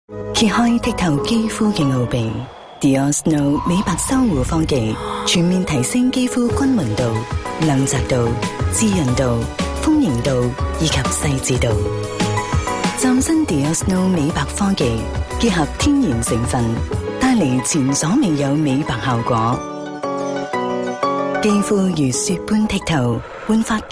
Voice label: CANTONESE female